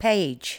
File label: "page", L2 English